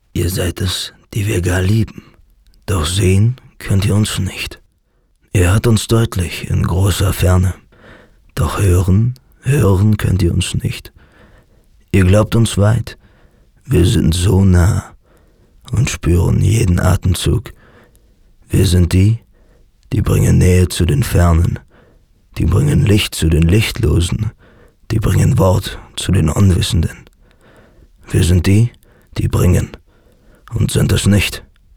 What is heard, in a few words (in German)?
charge, maenner